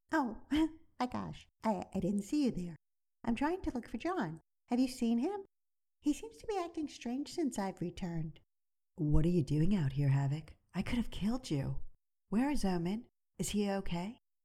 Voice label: many characters